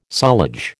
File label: "sullage", American